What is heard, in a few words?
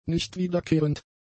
"nichtwiederkehrend", German; adjectives